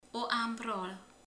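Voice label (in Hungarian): "körte", Hungarian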